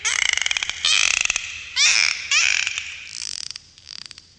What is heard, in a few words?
happy; bernie; fertig classic games